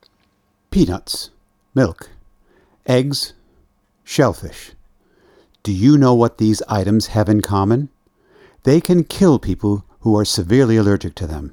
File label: business education announcement